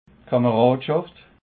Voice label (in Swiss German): "kameradschaft", alsace